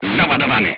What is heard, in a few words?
voice message charged, crew tanks polish